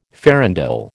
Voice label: "farandole", American

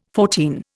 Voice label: "fourteen", Indian

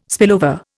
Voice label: "spillover", Indian

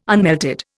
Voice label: "unmelted", Indian

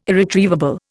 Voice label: "irretrievable", Indian

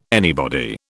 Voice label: "anybody", British

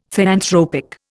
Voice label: "theanthropic", Indian

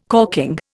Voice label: "corking", Indian

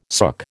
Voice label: "sook", American

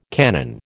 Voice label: "canon", American

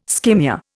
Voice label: "skimmia", Indian

